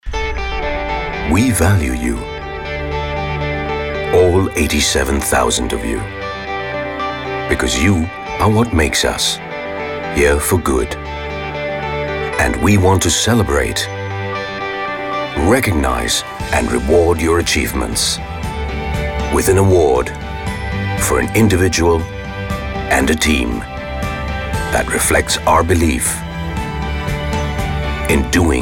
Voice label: Indian English; Male